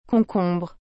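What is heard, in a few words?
"concombre", French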